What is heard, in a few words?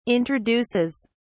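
"introduces", English, uk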